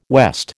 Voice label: "west", American